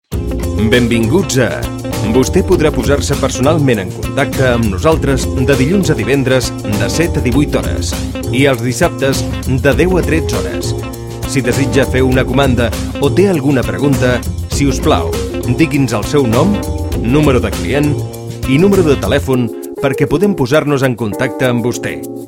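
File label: Catalan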